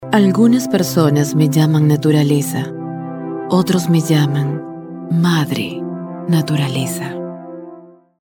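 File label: Spanish, female, commercial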